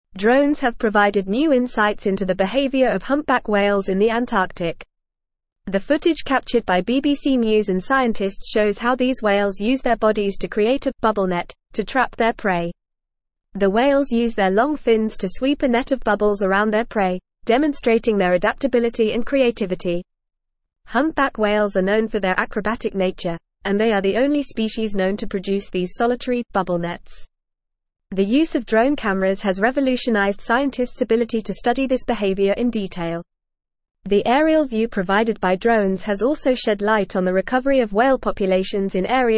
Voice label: English FAST Reading; Drones Capture Acrobatic Whales and Aid Conservation Efforts